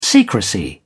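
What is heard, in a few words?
"secrecy", British English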